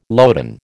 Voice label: "loden", American